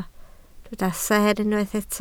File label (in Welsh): "seer", Welsh